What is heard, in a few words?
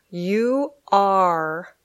"You are", English